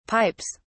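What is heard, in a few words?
"pipes", British English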